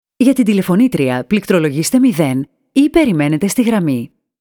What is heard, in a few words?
gia tin tilefonitria patiste 0, answering machine